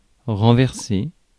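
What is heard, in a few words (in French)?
"renverser", French; Mots francais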